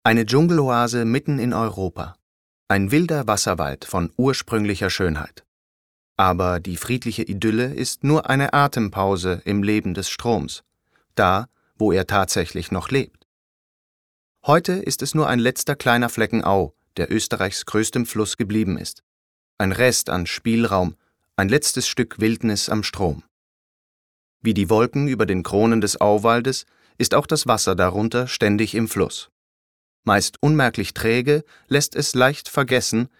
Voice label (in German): DOKU